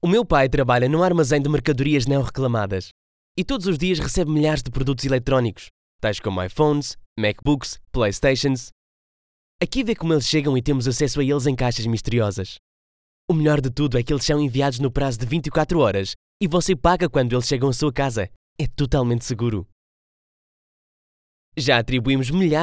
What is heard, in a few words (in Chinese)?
葡萄牙语配音